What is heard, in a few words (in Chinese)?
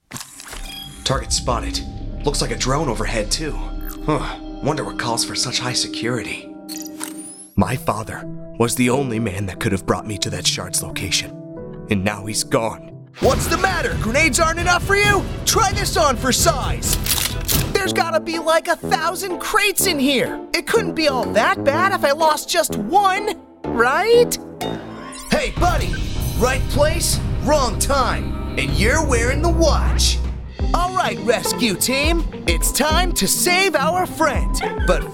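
美式英语男声 Animation Gaming; Guy Animation Gaming Demo 2020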